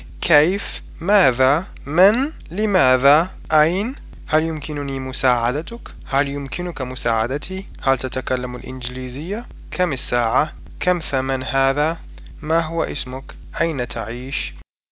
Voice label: arabic; questions

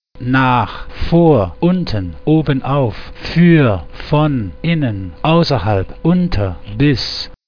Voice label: german; words

